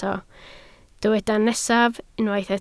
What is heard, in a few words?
"nesaf", Welsh